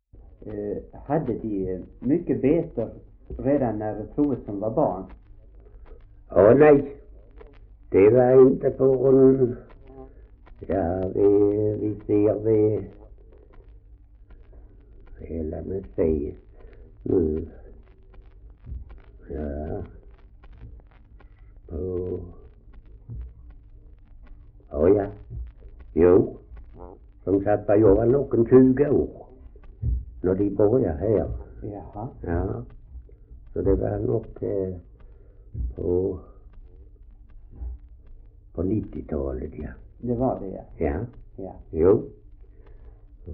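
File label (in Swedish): Ausås Skåne, svenska sagor dialekter; Folkminnen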